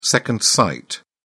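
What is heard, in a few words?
"second sight", British English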